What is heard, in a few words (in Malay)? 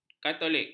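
"katolik", Malay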